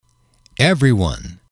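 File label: "everyone", english, male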